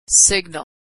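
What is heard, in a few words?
"signal", American English; g-n separate syllables pronounced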